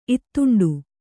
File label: "ಇತ್ತಂಡ", Kannada